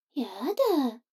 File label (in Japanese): 女の子台詞